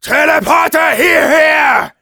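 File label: Demoman need teleporter, German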